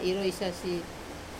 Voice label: "resasi", wash, wamesa

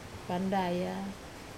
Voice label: "pandaya", gun, wamesa

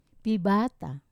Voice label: "pibata", sea turtle, wamesa

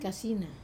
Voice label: "kasina", cucumber, wamesa

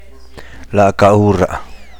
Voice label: at this time by this time, teotitlan

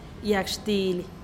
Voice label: "yag xtilih", Teotitlán del Valle Zapotec; yag xtily; firework

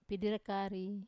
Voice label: "piderekari", mango, wamesa

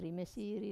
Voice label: "rime siri", six, wamesa

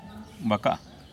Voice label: "bakaa", Teotitlán del Valle Zapotec; crow

